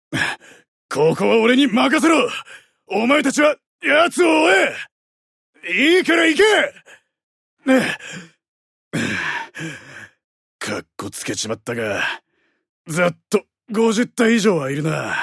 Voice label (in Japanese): アニメ